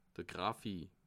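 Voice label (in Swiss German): "graphie", Bas Rhin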